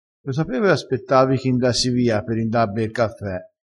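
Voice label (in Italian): "o come", dialettale crespinese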